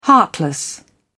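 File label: "heartless", British English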